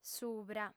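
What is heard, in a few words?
"subra", Sardinian, female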